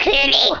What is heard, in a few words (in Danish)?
"Coward", Danish